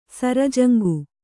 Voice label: "ಸರಜಂಗು", Kannada

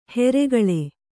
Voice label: "ಹಿರಿಕೊಳ್", Kannada

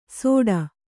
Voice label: "ಸೋಟ", kannada

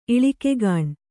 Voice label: "ಇಳಿಕೆಗಾಣ್", Kannada

